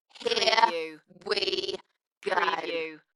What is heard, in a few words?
UK female Here we go Dalekness; beanstalk audio